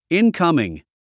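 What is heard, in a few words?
"incoming", English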